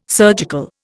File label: "surgical", Indian